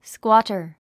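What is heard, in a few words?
"squatter", English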